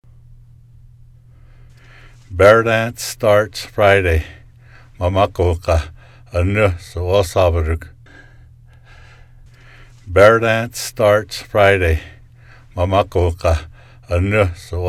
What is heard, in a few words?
Ute Sentence; Beardance